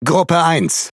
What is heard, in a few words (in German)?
Speech Pilots Bomber ID, DE; World Of Warships